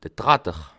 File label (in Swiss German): "tratter", Alsace